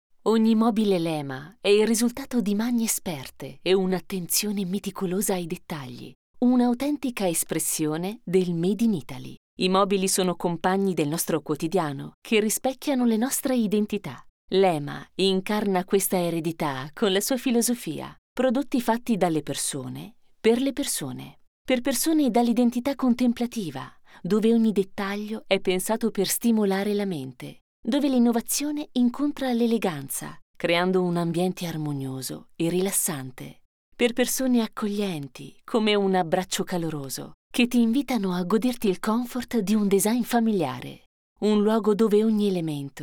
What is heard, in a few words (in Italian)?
corporate tono confidenziale e caldo; lema